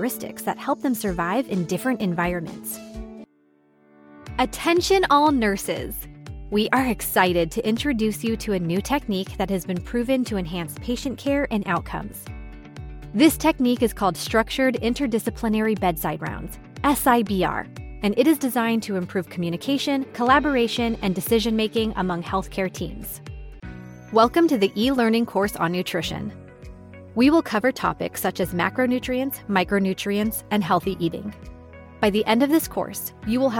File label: Narration Voice Over